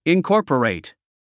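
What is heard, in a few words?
"incorporate", English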